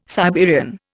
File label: "Siberian", Indian